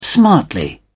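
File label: "smartly", English, British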